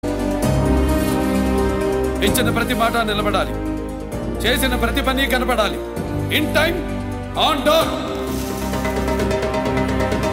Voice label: Trailer Emotional Dailouge; NTR Mahanayakudu; Nandamuri Balakrishna